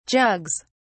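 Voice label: "jugs", British English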